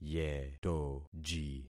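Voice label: "yetoji", concatenated syllables; Affixing experiment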